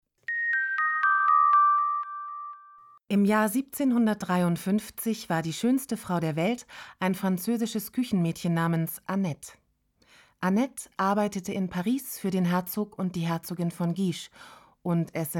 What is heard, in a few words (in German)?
Sprecherdemo Hörbuch; Die Brautprinzessin